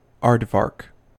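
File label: "aardvark" US English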